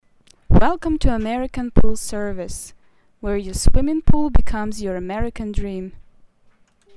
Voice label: cute voice